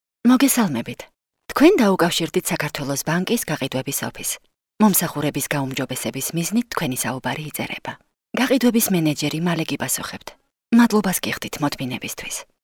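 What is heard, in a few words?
female, narration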